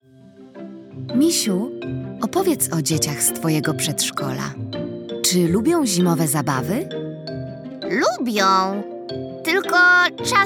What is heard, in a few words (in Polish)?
narracja; czasopismo mis; zima; Pani Och-Ach. Gips – rozmowa